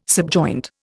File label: "subjoint", Indian